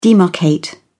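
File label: "demarcate", British English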